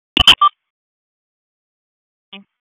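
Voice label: Sheriff's Office Dispatch 1, p25; wake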